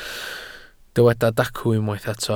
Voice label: "dacw", Welsh